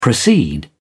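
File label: "proceed", English, British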